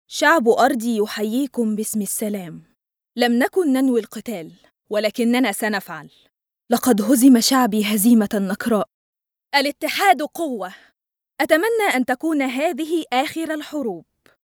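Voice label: arabic female narration, voice demos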